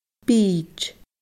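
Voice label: "bìd", Scottish Gaelic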